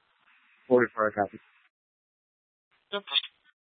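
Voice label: Tempe police department, scanner; Rgnl Wireless Co-op (RWC)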